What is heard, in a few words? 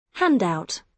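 "handout", British English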